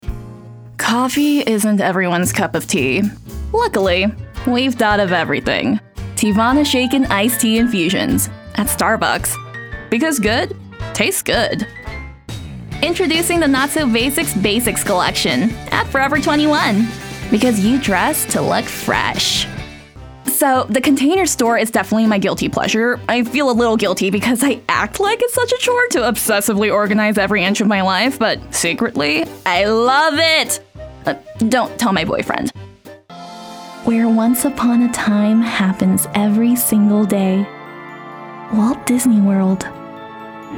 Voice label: Commercials